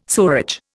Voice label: "sewerage", Indian

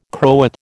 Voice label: "Croat", American

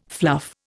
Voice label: "fluff", Indian

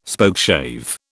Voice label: "Spokeshave", British

